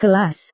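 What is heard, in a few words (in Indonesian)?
"kelas", Indonesian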